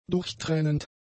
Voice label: "durchtrennend", german; adjectives